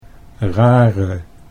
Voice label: "rare", Dutch

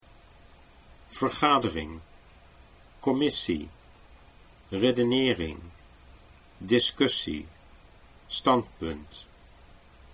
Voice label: vergadering commissie, Dutch

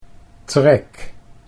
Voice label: "trek", Dutch

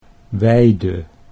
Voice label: "weide", Dutch

